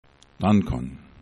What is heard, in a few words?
"dankon", esperanto